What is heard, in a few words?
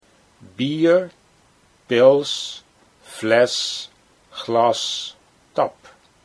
"bier pils fles", Dutch